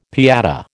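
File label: "pâté", American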